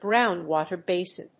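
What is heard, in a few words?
"ground water basin", English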